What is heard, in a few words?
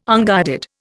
"unguided", Indian